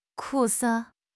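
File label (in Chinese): "苦涩", Chinese; HSK6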